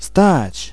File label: Freeze, vocals pl; fan made